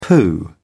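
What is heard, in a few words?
"pooh", British English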